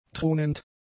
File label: "thronend", German; adjectives